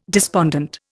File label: "despondent", Indian